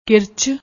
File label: "kirach", Punjabi; ਕਿਰਚ